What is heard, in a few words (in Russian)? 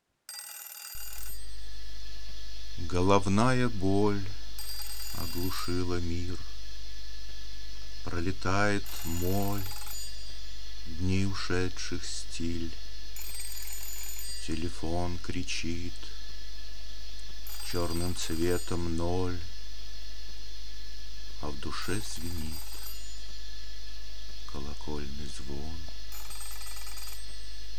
стих, читает автор; ТЕЛЕФОН; головная боль оглушила мир